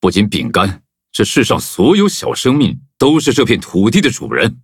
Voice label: loading, zh-hant; cookie run